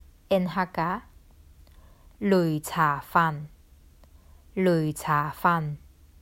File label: "Lui Cha Fan 擂茶飯" Hakka; The Duke Who Didn’t: Glossary & Pronunciation Guide